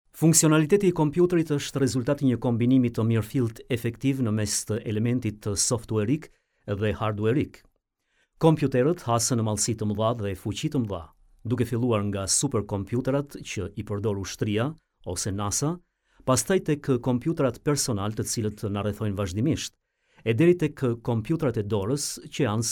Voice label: male albanian voice over narrative

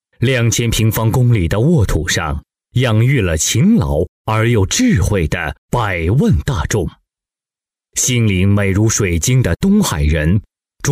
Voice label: male chinese voice over talent narrative; mandarin chinese

